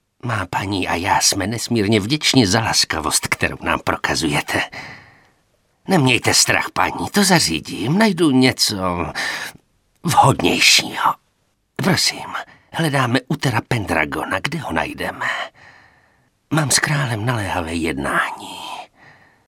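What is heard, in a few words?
Male Czech drama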